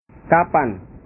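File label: "kapan", Indonesian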